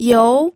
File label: "you2", pinyin